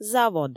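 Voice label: "завод", Komi, female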